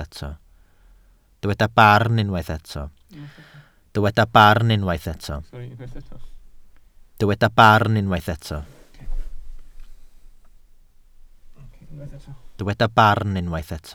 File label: "barn", welsh